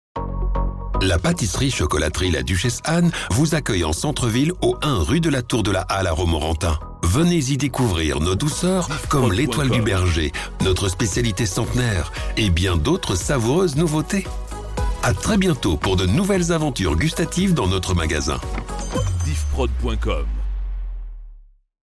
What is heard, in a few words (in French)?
Radio Spot, publicite; Radio Sologne; Duchesse Anne